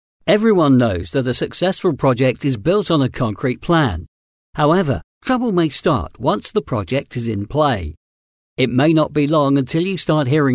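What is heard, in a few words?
UK English, male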